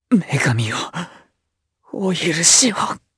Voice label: Dead, jp; Lucias; kingsraid